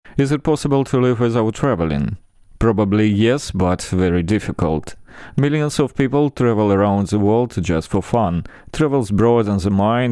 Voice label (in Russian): lang anglijskij, golos